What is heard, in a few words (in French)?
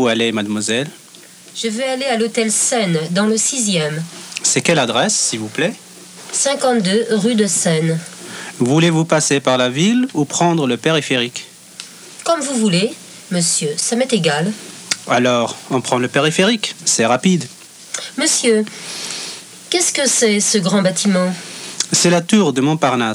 conversation